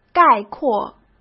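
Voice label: "概括", Mandarin Chinese; hsk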